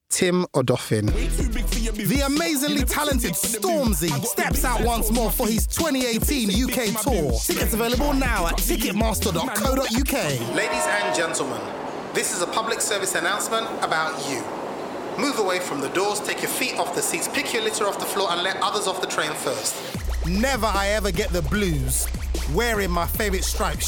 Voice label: Commercial Reel